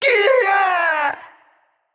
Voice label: Male Naga Death